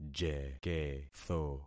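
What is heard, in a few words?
"jeketho", concatenated syllables; Affixing experiment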